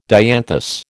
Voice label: "dianthus", American